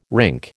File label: "rink", American